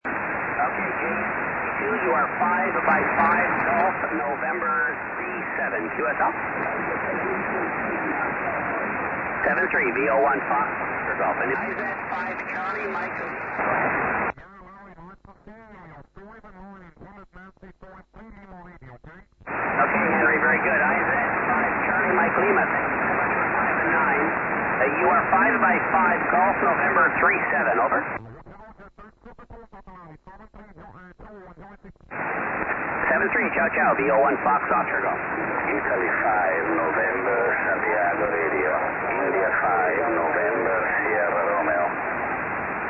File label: 6M SSB